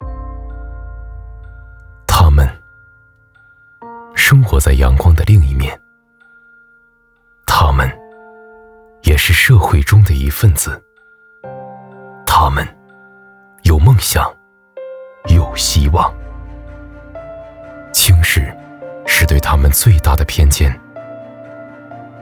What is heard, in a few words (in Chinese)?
走心 温暖 力量